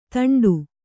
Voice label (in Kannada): "ತುಂಟ", kannada